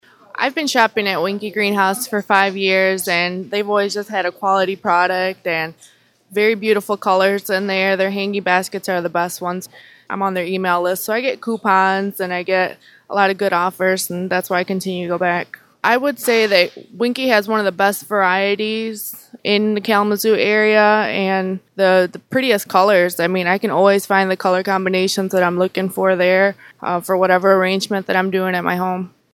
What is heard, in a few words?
Testimonial